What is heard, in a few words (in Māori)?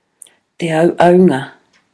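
"te auaunga", Māori word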